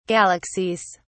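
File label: "galaxies", British English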